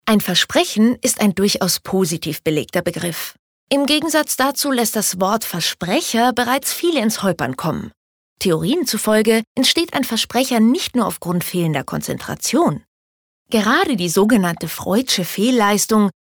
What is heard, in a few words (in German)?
Versprecher Freundlich